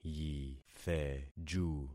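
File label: "yitheju", concatenated syllables; Affixing experiment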